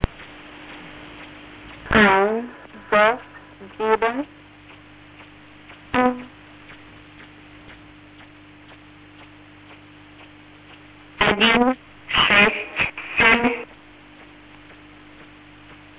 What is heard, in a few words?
ukrainian sz german